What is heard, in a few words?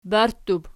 "barttub", Archi